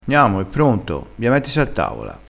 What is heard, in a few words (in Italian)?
"mettisi", crespinese, vocabolario dialettale